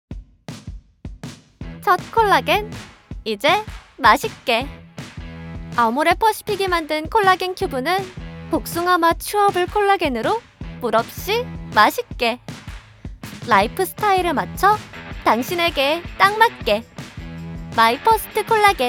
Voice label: 광고 밝은 활발한 여대생 느낌 샘플

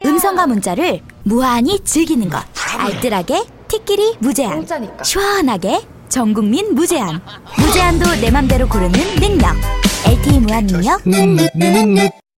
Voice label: 밝은 통통튀는 생동감있는; SKT

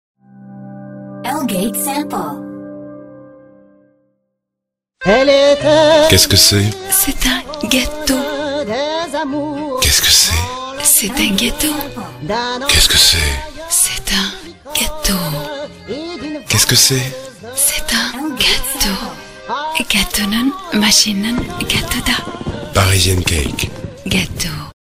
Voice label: 프랑스 남 CF 엔딩; 갸또

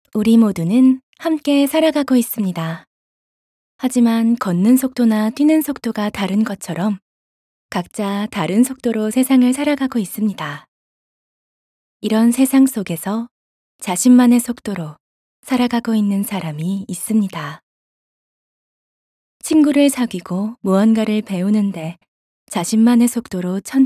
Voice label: 따듯한 차분한

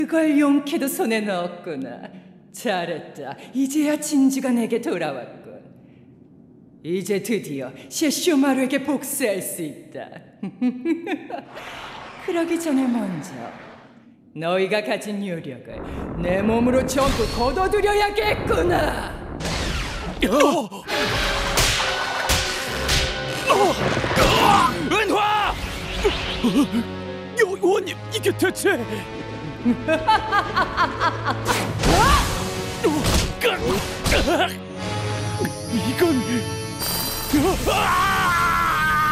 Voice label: 사악한 노인; 야샤히메 여화

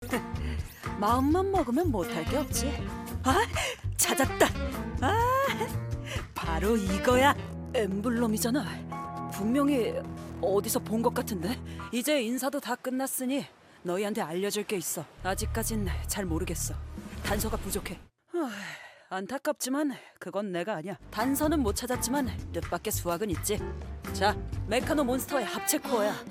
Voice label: 10대 중반 소년 잘난척하는 허세부리는

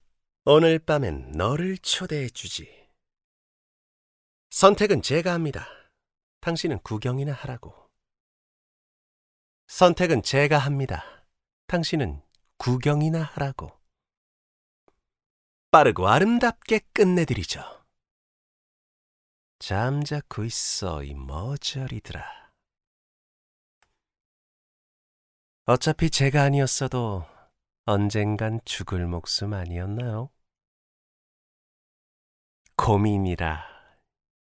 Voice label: 젊은 킬러 비열한 30대

